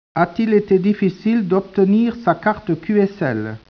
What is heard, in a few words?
speech, French